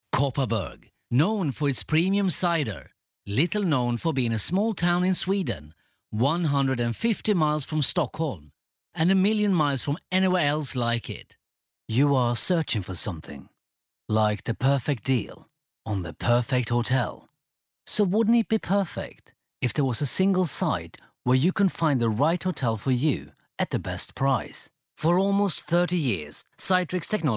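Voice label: Swedish, accented English; GoLocalise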